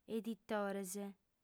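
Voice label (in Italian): "editores", lingua sarda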